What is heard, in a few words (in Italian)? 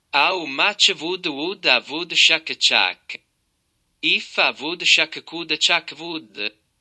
google traduttore scioglilingua maccheronico